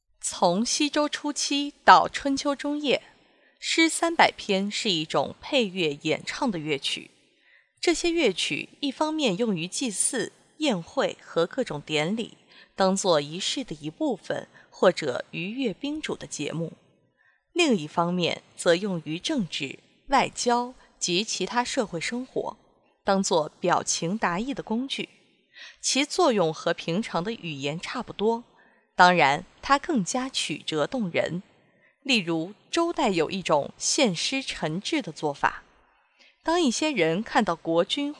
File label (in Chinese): 社科文配音